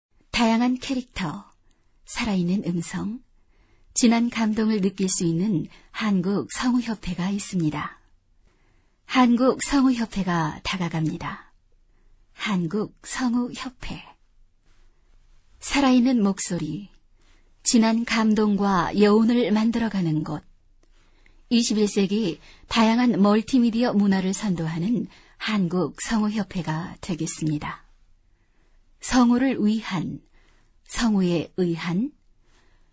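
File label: Korean, female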